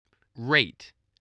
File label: "rate", english